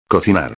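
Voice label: "cocinar", Spanish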